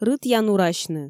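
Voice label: "рытъянурасьны", Komi, female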